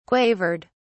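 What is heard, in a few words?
"quavered", British English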